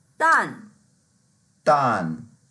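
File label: "dan4", Mandarin Chinese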